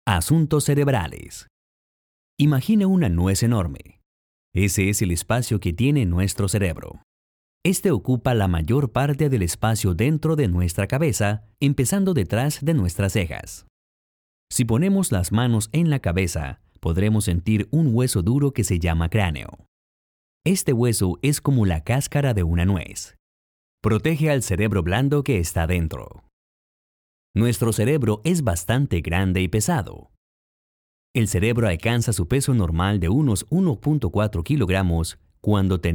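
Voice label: latam mexican male commercial; voice demos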